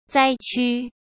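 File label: "灾区", Mandarin Chinese; chinese flashcards; hsk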